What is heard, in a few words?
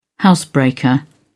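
"housebreaker", British English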